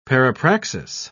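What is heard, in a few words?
"parapraxis", British English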